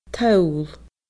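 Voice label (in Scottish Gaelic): "toll", Scottish Gaelic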